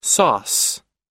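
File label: "sauce", US English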